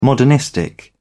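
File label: "modernistic", British English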